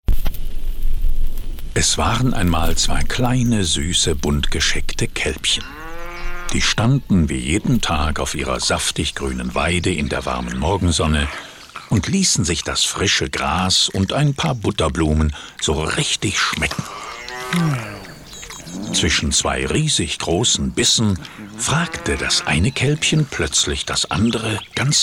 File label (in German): werbespot